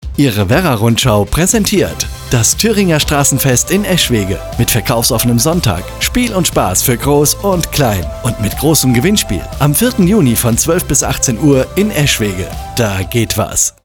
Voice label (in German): Straßenfest Funkspot; Eschwege Thüringer Straßenfest; Event Eschwege